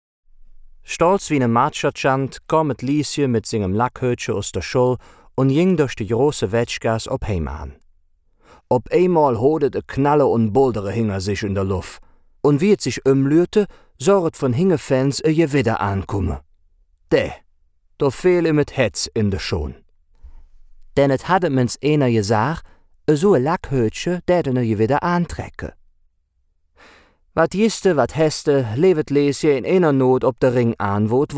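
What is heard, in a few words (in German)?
kölsche verzällcher; koelsche verzaellcher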